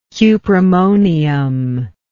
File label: "cuprammonium", english